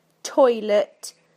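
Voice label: "toilet", British English; PictureDictionary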